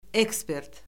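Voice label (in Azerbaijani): "ekspert", Azerbaijani; Orfoqrafiya Lüğəti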